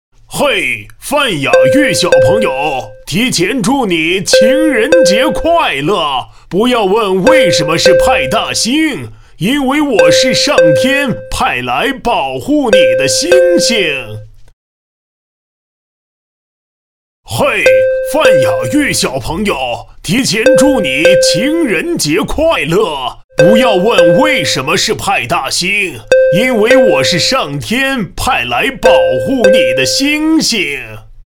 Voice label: 女 模仿 角色; 派大星

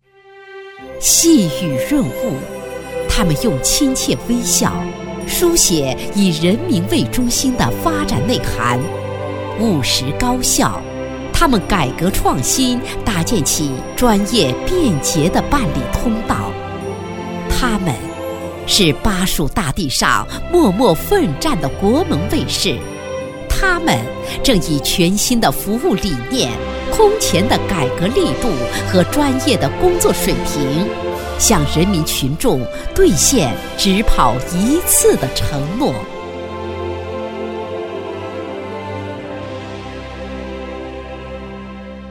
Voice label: 女 大气颁奖专题

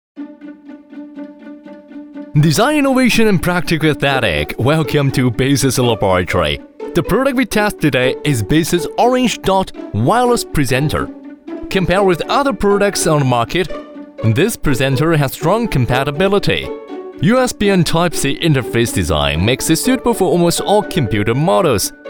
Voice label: 男 英文 清晰介绍

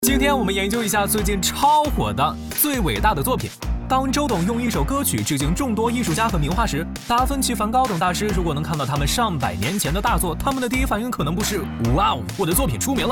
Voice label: 男 MG 动画; TCL未来研究所

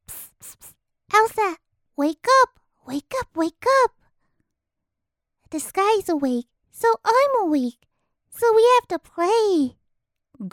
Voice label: 英女 童声角色; 模仿冰雪奇缘

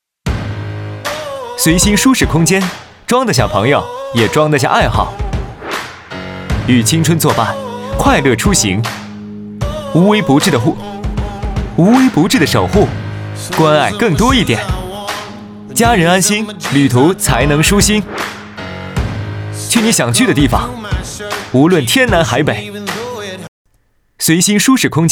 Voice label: 男 广告 汽车 年轻时尚; 风行雷霆

